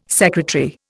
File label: "sec", Indian